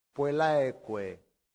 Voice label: "puellaeque", Latin; santillana